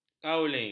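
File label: "kaolin", Malay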